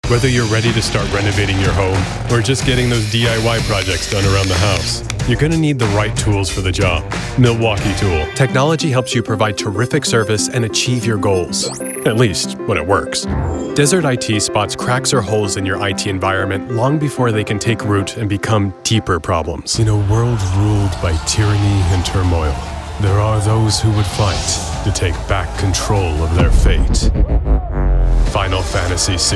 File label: Commercial